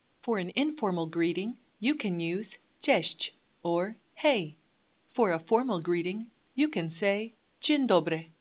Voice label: hello, Polish